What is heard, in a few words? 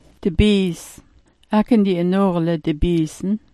"dabiis", smalgyax